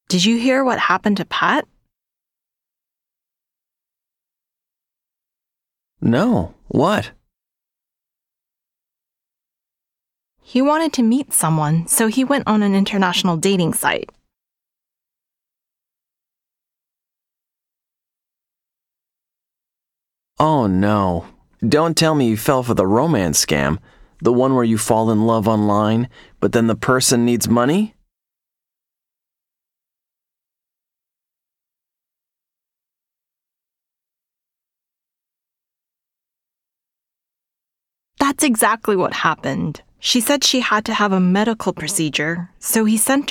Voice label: PRONUNCIATION PRACTICE; Connectivity 5